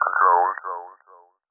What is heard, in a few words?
ELECTRO MALE 140 A, Vocals